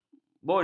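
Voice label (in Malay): "bun", Malay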